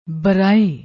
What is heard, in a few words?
"baraae", Punjabi